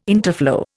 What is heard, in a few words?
"interflow", Indian